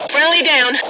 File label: killed team, English